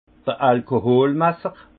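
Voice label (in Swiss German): "alkool messer", Haut Rhin; vin; alcoomètre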